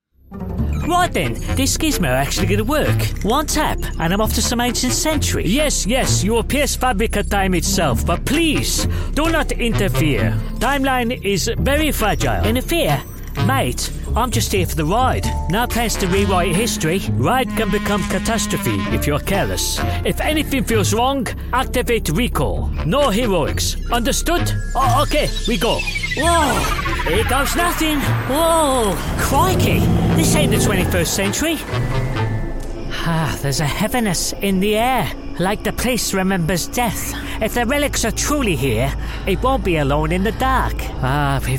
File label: gaming, English - England, Male